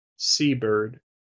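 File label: "seabird" English, US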